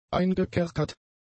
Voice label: "eingekerkert", German; adjectives

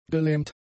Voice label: "gelähmt", German; adjectives

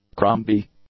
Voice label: "crumby", English; adjectives

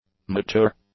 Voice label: "mature", English; adjectives

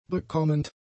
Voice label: "bekommend", German; adjectives

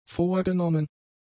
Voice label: "vorgenommen", German; adjectives

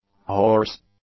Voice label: "horse", English; nouns

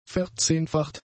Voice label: "verzehnfacht", German; adjectives

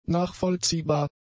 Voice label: "nachvollziehbar", German; adjectives

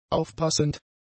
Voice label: "aufpassend", German; adjectives

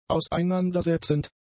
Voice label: "auseinandersetzend", German; adjectives

